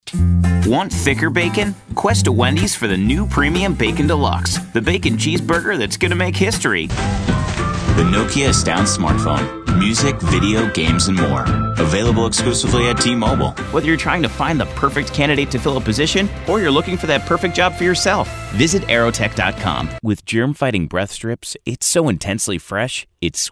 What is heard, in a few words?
commercial VO